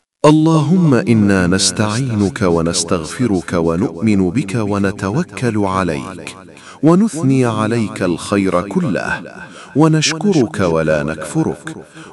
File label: Dua e Qunoot - Allahumma Inna Nastaeenuka - Urdu & English Translation